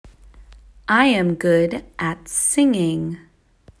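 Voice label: "I am good at singing", English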